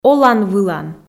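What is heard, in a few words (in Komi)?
"олан-вылан", Komi, female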